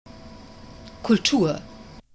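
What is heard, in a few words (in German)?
"Kultur", German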